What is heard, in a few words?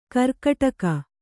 "ಗೀರ್ಕಡಗ", Kannada